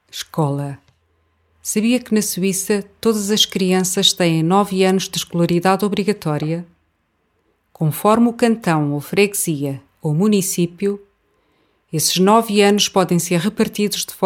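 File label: Portuguese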